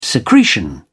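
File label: "secretion", British English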